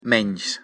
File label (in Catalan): "menys", Com pronunciar en català